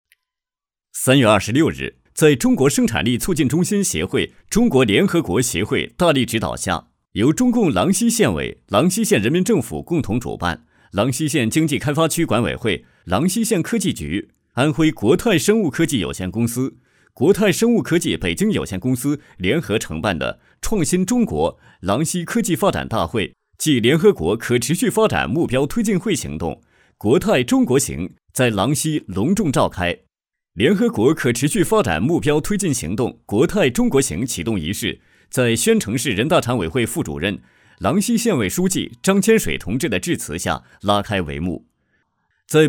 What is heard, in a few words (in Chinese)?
男 新闻播报 轻快; 国肽郎溪